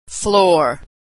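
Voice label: "floor", American English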